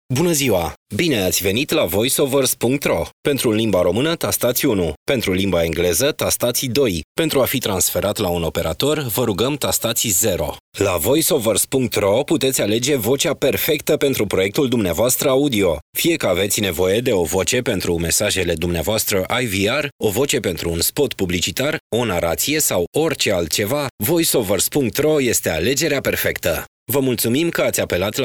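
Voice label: Romanian male IVR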